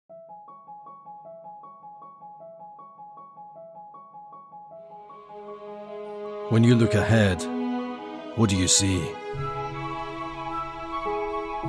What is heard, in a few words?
Canadian Male Grooming CANADIAN TV ADVERT; Scottish